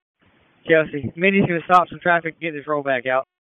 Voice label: PS TAC, p25